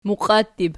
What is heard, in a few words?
"muqiattib", Archi